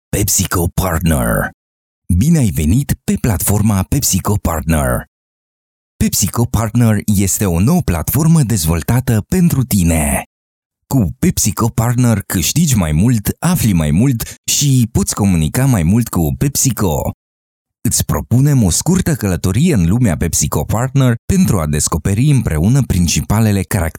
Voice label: romanian